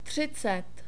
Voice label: "třicet", czech numbers